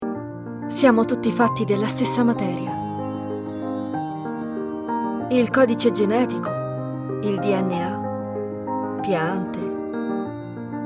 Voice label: Emotional